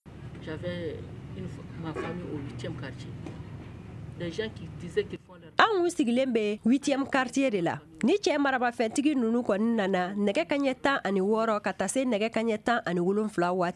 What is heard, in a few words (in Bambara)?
magazine bamanankan